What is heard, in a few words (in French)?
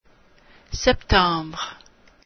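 "sept", French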